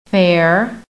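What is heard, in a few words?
"fair", American English; PHONETIC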